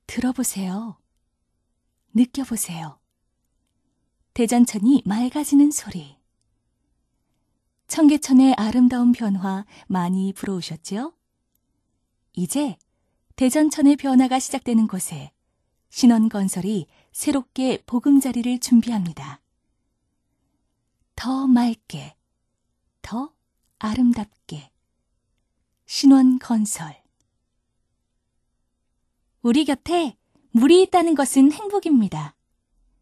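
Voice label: Korean, female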